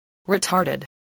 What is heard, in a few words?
"retarded", English